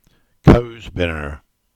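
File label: "Binner Wood" Cornish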